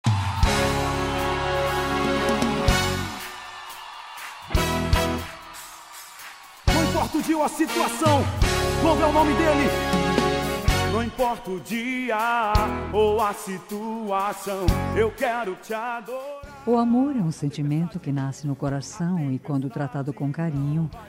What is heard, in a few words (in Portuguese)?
namorado gospel, Aniversário Namorado Gospel – Voz Feminina; Aniversário Namorada Gospel – Voz Masculina